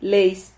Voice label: "leis", Silabas

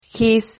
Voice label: "gis", Silabas

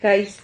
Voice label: "jais", Silabas

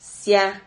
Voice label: "sia", Silabas

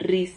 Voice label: "riz", Silabas

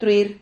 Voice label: "truir", Silabas

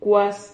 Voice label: "cuas", Silabas

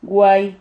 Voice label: "guay", Silabas

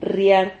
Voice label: "rriar", silabas

